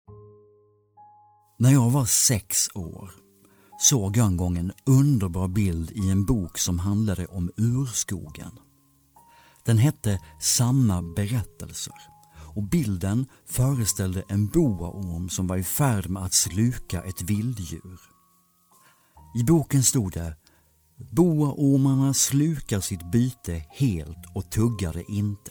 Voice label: swedish audiobooks

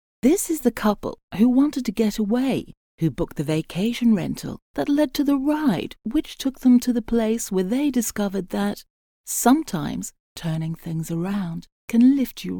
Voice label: english british commercial

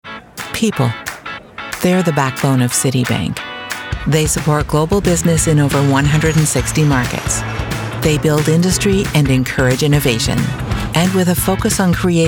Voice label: english canadian corporate videos

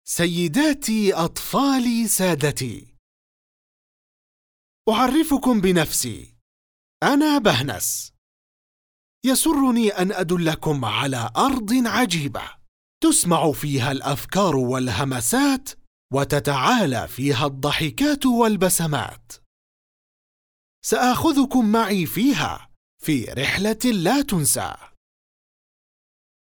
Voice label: arabic cartoon animation

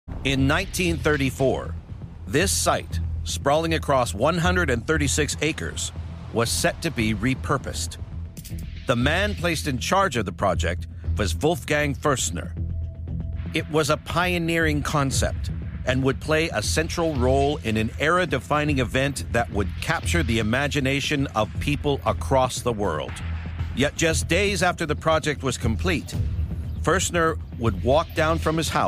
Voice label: english canadian narration

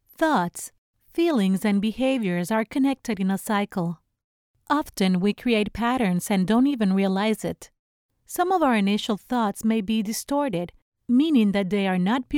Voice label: english narration

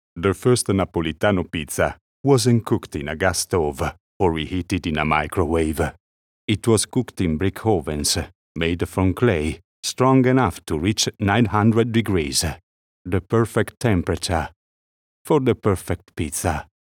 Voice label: english corporate videos

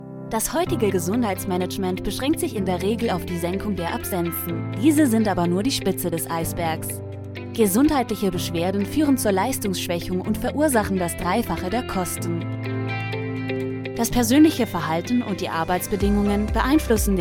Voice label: german e learning

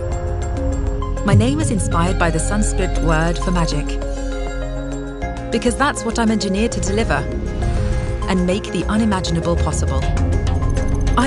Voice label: english british automotive; British accented

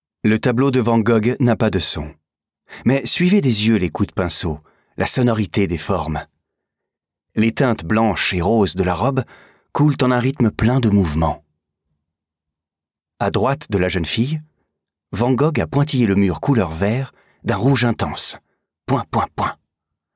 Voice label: french audio guides

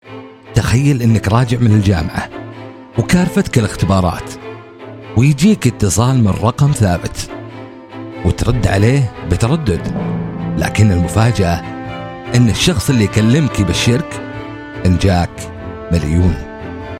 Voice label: arabic corporate videos, voice actors

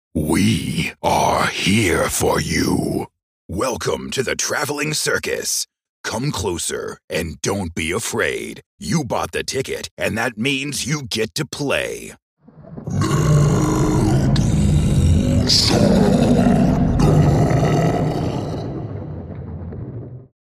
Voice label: english american

